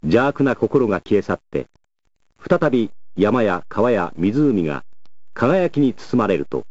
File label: narration; Tenma Ziria